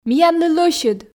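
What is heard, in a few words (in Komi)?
"миянлы лӧсьыд", Komi, female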